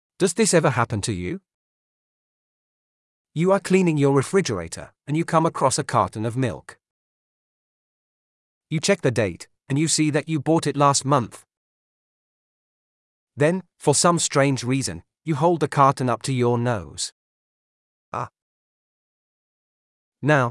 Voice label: uk old butter